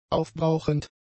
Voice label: "aufbrauchend", German; adjectives